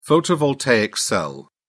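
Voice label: "photovoltaic cell", British English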